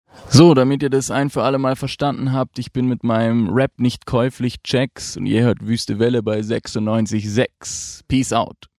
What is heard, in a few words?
station ID